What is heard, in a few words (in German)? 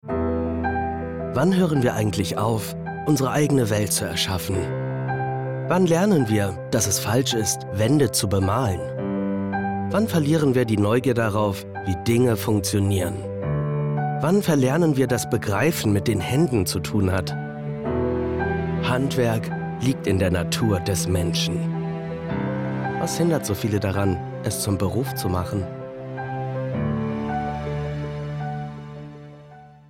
Werbung Mittelstand